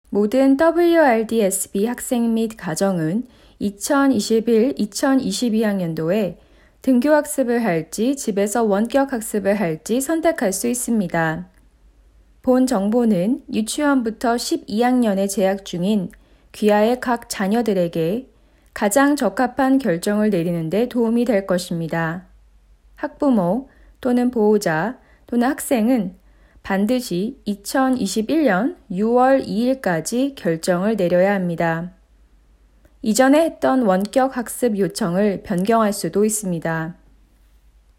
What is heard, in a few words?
Korean